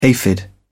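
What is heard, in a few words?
"aphid", British English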